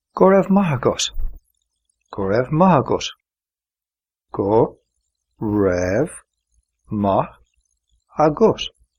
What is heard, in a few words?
thank you irish